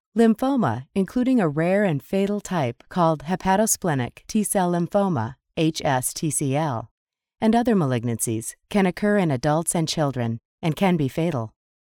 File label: Medical Narration Sample